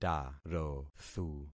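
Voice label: "tarothu", concatenated syllables; Affixing experiment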